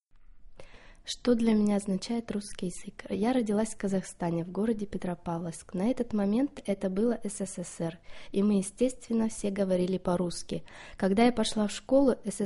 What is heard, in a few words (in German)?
Russisch